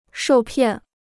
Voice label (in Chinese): "受骗", Chinese